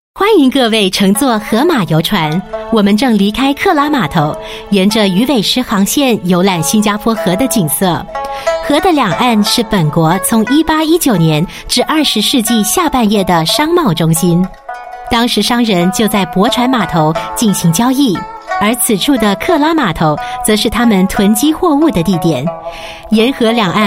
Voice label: Mandarin Corporate, Mandarin China Female; DuckTours